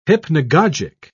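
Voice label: "hypnagogic", British English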